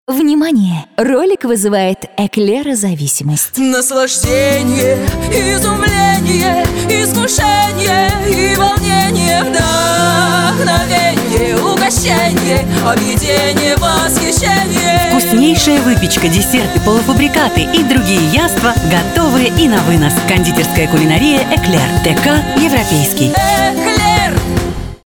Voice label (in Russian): reklama; Ekler Iskushene